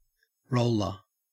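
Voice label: "roller", Australian English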